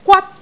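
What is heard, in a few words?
"gwat1", Cantonese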